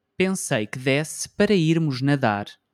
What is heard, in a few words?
"pensei que desse para irmos nadar", Portuguese